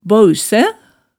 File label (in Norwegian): "baausæ", hemsedals maalet tale